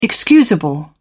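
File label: "excusable", British English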